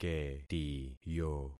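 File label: "ketiyo", concatenated syllables; Affixing experiment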